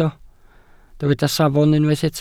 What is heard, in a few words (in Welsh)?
"safon", Welsh